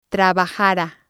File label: "trabajara", Spanish